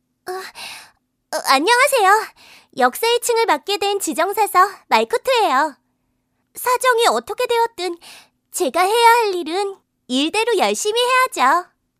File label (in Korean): 10대 여 발랄한 밝은; 라오루 말쿠트